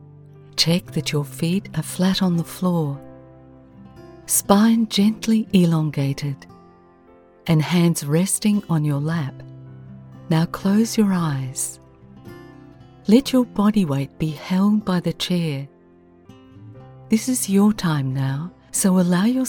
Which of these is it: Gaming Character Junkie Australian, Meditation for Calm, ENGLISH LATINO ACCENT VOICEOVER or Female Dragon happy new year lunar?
Meditation for Calm